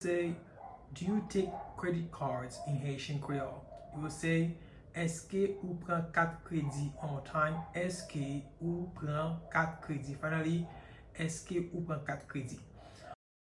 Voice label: Eske ou pran kat kredi, Haitian Creole pronunciation; Do you take credit cards in Haitian Creole